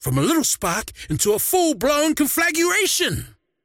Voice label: croak big; dota; largo

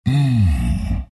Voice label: treant happy

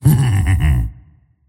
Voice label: happy; dota; tiny

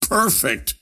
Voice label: lasthit pain; ringmaster

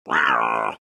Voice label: anger; tinker

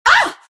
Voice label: naga pain; dota; naga siren

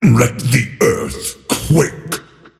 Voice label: attack pain; dota; earthshaker; arcana

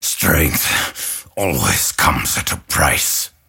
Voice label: lasthit pain; dota; grimstroke

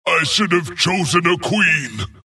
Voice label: wraith death; dota; skeleton king

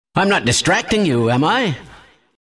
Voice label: announcer death; dlc kleiner

